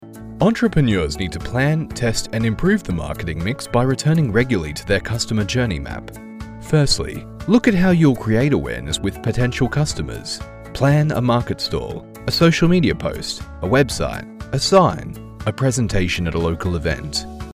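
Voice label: E-Learning, American English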